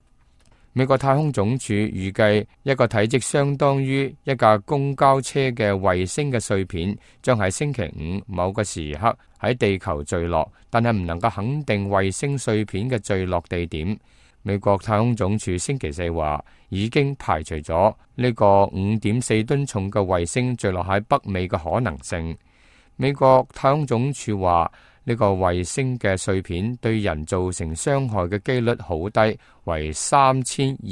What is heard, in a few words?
cantonese, news